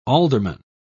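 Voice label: "ealdorman", British English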